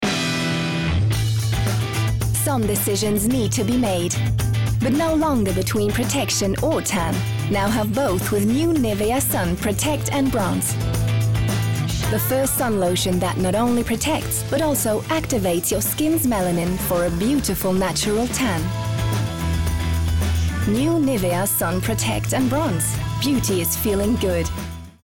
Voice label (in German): uk englisch